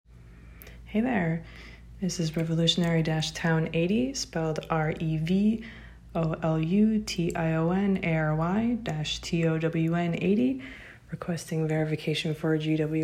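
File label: Female Verification Request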